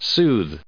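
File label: "soothe", US English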